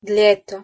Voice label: "dleto" Bosnian

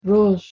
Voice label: "roj" Kurdish

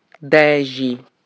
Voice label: "10" Sardinian

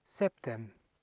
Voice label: "septem", Latin

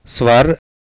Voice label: "svar", Sanskrit

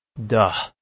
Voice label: "dah", Balochi

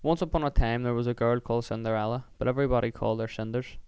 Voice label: Belfast

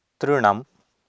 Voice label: "trNam", Sanskrit

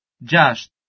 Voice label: "jash", Albanian

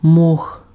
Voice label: "mox", Ukrainian

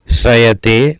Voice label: "Srayate", Sanskrit

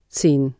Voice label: "zehn" German

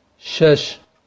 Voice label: "shesh", Kurmanji

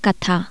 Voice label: Hindi "narrative"

